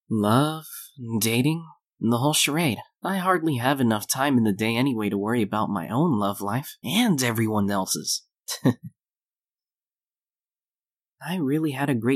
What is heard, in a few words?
Podcaster VO